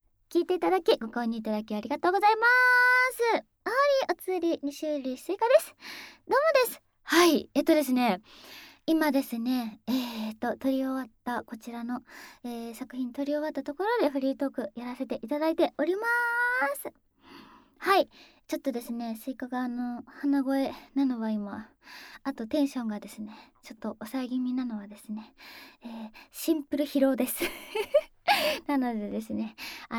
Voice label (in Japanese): フリートーク; クールな奴隷エルフ姫とのビジネスエッチ→ラブ堕ち性活2～二人きりの新婚旅行であまあま天国♪